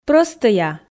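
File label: "прӧстӧя", Komi, female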